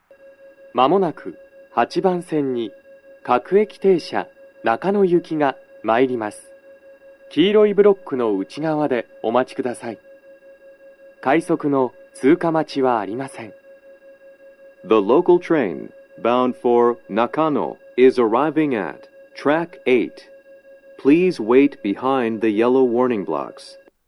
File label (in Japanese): 接近放送 男声, 各駅停車 中野行, 営団ブザーが裏被りしています; 8番線 中野・三鷹方面; 快速 の通過待ちはありません; 収録者:こたけ